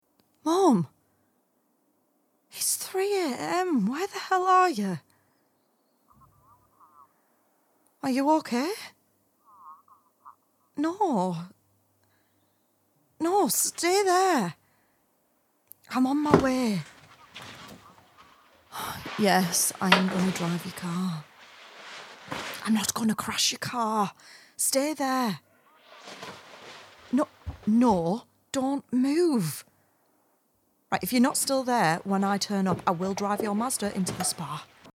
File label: Audio Drama